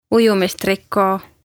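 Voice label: "Ujumistrikoo", Estonian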